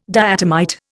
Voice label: "diatomite", Indian